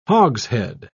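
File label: "hogshead", British English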